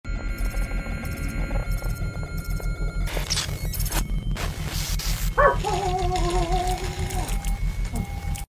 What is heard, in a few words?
Scared glep; smilingfriends